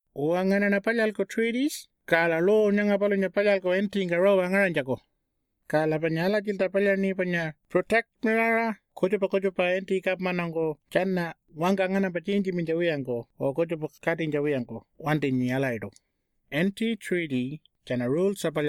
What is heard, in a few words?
Pitjantjatjara